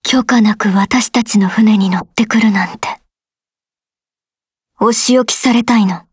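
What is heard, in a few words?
start, Japanese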